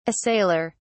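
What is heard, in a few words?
"assailer", British English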